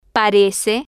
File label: "parece", spanish